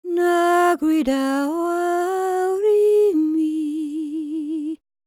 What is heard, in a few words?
WELSH F, World Voices